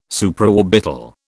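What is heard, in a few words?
"Supraorbital", British English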